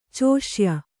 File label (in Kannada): "ಚೋಷ್ಯ", kannada